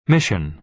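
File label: "mission", American English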